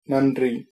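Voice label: thanks, tamil, male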